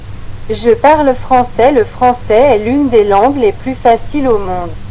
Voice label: french